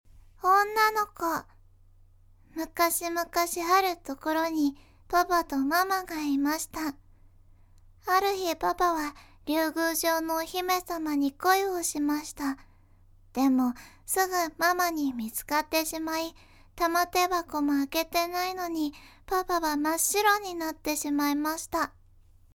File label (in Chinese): 萝莉